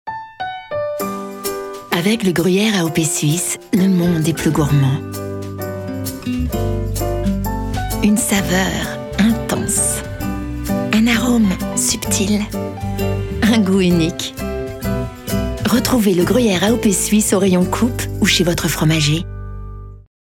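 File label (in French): Spot TV chaleureuse gourmande, voix off féminine; Gruyère Suisse AOP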